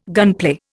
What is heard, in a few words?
"gunplay", Indian